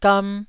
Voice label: "gam1", Taiwanese